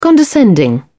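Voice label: "condescending", British English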